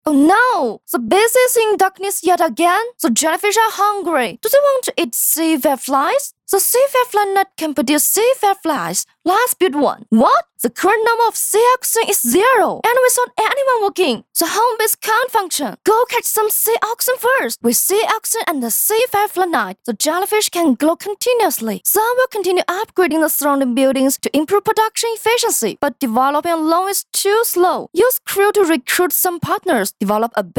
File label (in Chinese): 女 英 信息流